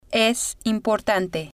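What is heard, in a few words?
"es importante", Spanish, female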